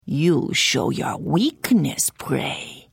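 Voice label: Hello, Khajiit female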